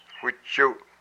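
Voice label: "my ear", Hupa, male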